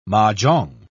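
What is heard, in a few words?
"mah-jongg", British English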